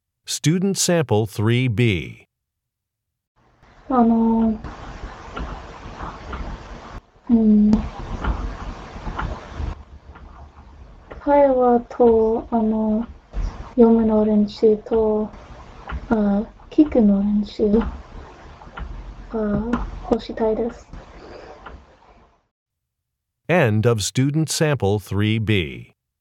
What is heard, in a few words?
japanese conversation